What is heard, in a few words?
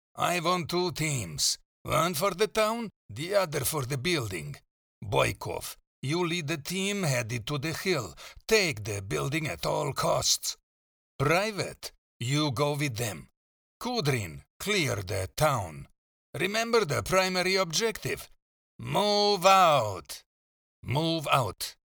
RUSSIAN SOLDier; JackMAck